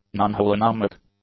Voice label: "nonholonomic", english; adjectives